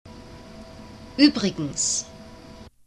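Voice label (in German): "uebrigens", German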